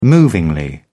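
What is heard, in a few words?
"movingly", British English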